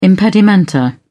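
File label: "impedimenta", British English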